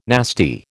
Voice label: "nasty", American

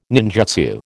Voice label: "ninjutsu", American

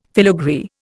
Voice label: "filagree", Indian

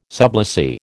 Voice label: "sublessee", American

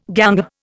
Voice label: "ganger", Indian

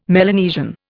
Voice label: "Melanesian", Indian

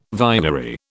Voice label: "vinery", British

